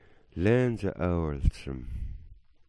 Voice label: "awl s", Smalgyax

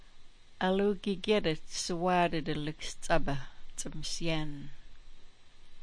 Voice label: "alugyigyet", smalgyax

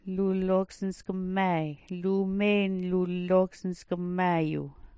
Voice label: "lulloksnsgm maay", smalgyax

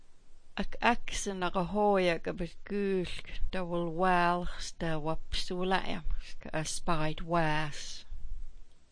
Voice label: "ak'aks", smalgyax

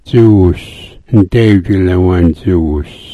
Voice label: "dzuus", smalgyax

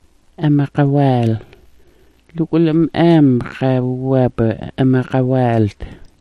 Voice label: "amagawaal", Smalgyax

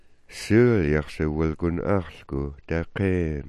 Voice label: "-axk", smalgyax

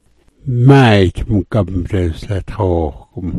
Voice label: "dzida", smalgyax

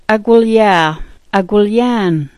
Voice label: "agwilyaa", smalgyax

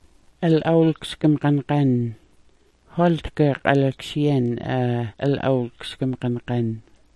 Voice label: "al'awksmgangan", smalgyax

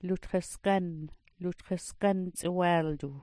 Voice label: "lutxasgan", smalgyax

